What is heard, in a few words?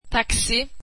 swedish taxi